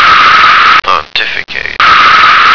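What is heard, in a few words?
"pontificate", American English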